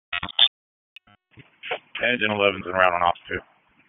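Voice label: FIRE Operations, p25; FIRE OPS 2; wake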